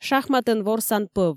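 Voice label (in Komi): шахматӧн ворсан пӧв, Komi, female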